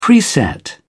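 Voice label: "preset", British English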